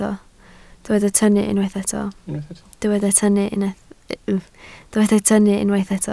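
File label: "tynnu" Welsh, spoken word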